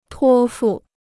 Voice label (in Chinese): "托付", Chinese